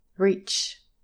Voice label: "reach", english pronunciation